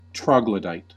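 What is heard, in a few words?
"troglodyte", American English; pronunciations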